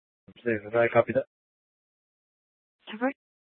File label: Superstition Dispatch, Mesa PD